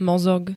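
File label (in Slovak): "mozog", Slovak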